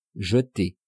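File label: "jeter", French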